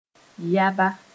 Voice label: "yaba", Moore